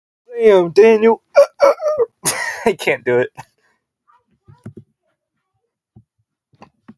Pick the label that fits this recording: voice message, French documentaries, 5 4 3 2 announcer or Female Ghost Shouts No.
voice message